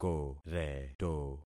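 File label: "koreto", concatenated syllables; Affixing experiment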